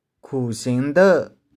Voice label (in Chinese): "khu2shing1deu1", 玉環